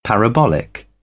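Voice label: "parabolic", British English; AmericanBritish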